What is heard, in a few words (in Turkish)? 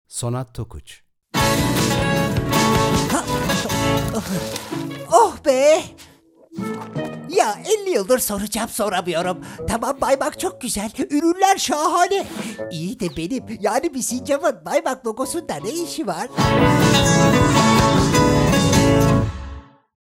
animasyon